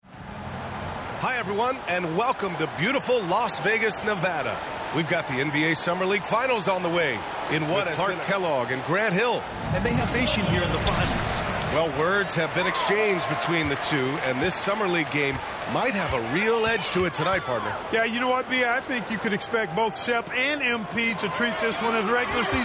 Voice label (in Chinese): 广播解说开场; 战中; 战斗音效; nba